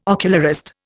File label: "ocularist", Indian